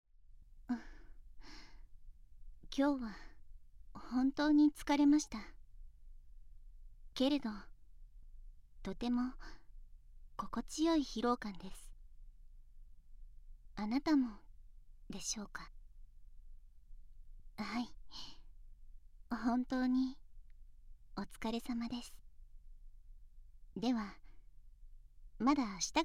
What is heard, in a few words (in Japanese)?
添い寝音声; 【おまけ】恋人メイドの添い寝音声; ＳＥ無し